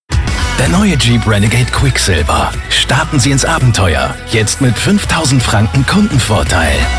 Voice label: Tv Commercial; Jeep; LOFT VOICES